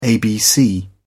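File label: "abc", British English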